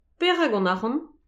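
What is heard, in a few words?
"perak hon archant", Breton; petit precis de prononciation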